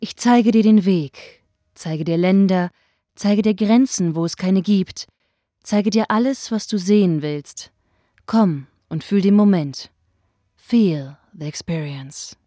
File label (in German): werbebeispiel gesprochen